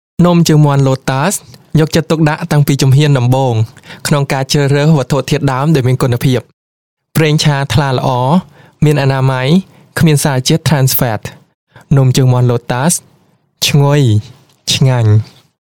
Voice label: Male Khmer TVC

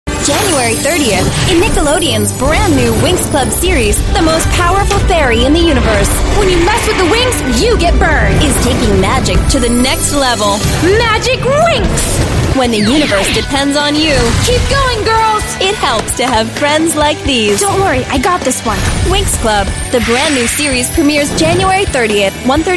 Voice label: US English Young Promo, English US Female; Nickelodeon

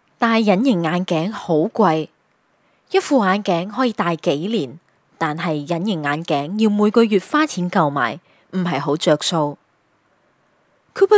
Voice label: Cantonese Matured, Cantonese Female

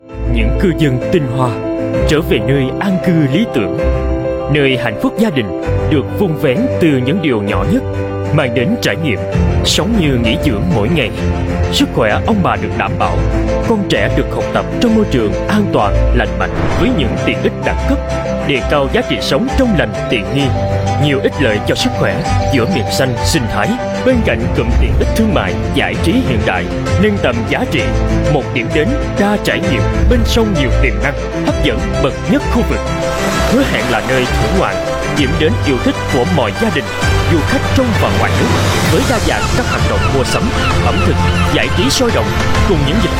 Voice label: South Male, South Vietnamese; Aqua City

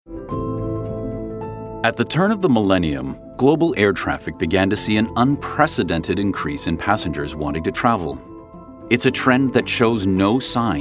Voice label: US English Documentary; Changi Airport

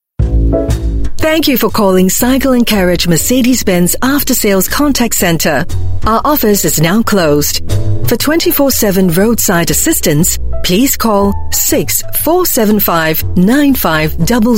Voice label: Asian English VO

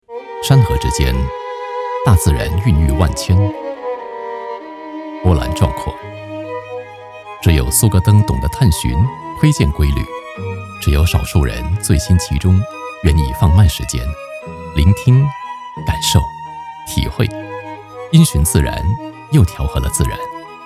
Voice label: Mandarin

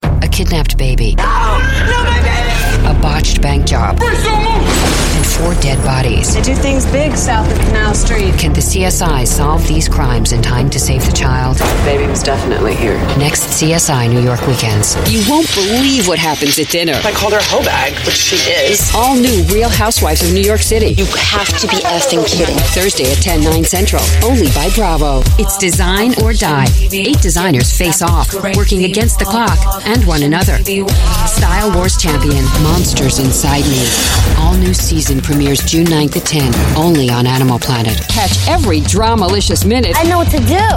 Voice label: US English Promo; CSI

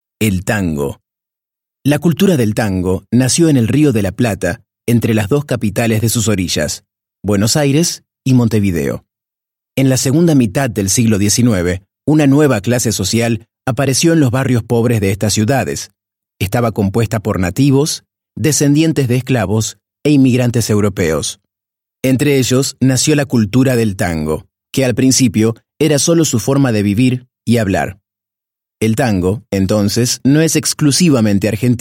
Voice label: Argentinian Spanish Formal Narration

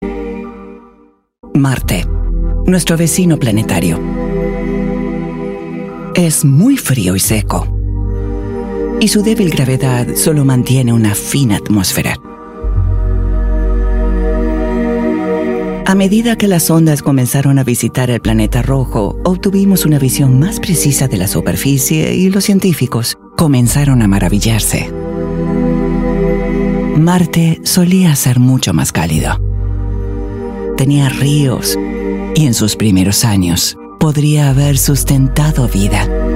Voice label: Latin Spanish Documentary; Mars Documentary

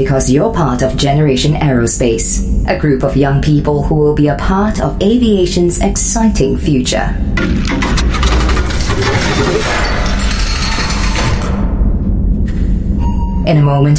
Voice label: Asian English journey through a Trent engine; Rolls Royce

